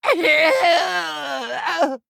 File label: Male Gnome Death; Hearthstone; датамайн обновления 5.0